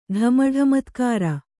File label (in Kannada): "ಢಮಢಮತ್ಕಾರ", Kannada